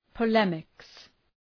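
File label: "polemics", English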